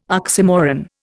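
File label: "oxymoron", Indian English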